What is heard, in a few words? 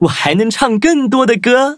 levelup zh-hant; cookie run